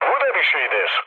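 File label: Turkish trick, mood low, speech; War Thunder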